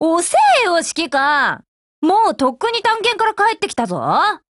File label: HELLO JP; GF Alarm; K11